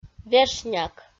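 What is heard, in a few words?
"вешняк", Komi, female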